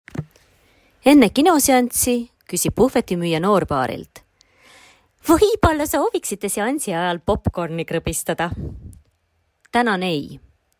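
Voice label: Estonian, VO Sample; GoLocalise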